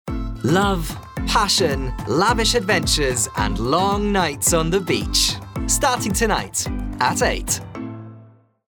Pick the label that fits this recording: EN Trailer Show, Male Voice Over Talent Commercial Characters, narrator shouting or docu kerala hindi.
EN Trailer Show